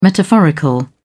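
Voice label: "metaphorical", English, British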